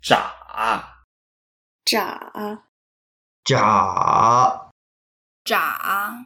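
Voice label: "zha3", Mandarin Chinese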